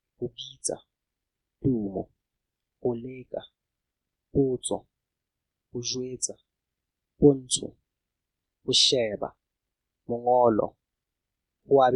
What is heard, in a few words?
Sesotho vowels